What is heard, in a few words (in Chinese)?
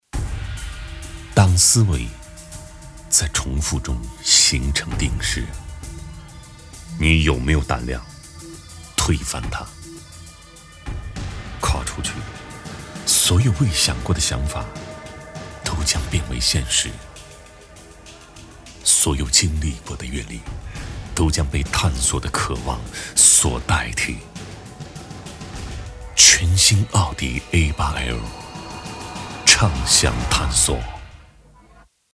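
【广告】男-沉稳; 奥迪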